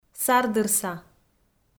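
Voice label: "сардырса", Komi, female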